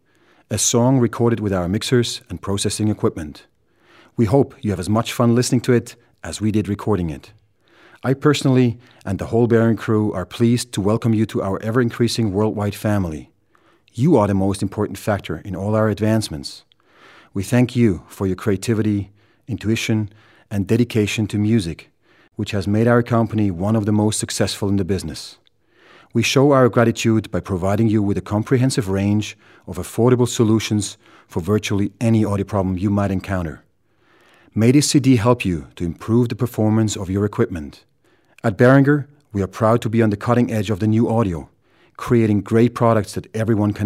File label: Speech Sample English